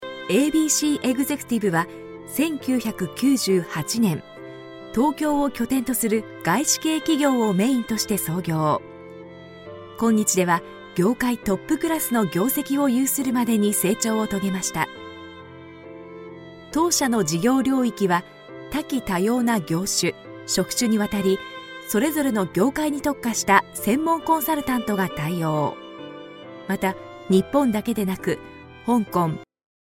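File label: Japanese Female Corporate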